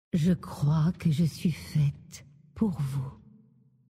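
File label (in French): French Femme Flirt; Faite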